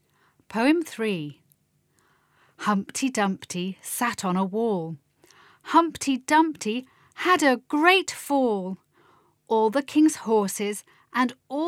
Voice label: Poem; poems and songs

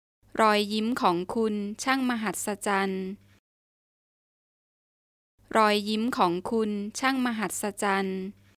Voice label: Your smile is wonderful, Thai

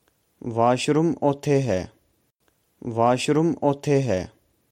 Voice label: The washroom is over there punjabi

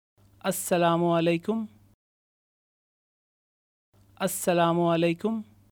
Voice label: Good afternoon Urdu

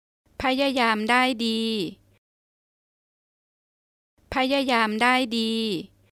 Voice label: Good try, Thai

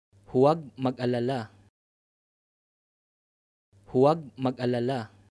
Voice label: No worries Tagalog

